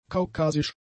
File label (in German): "kaukasisch", German; adjectives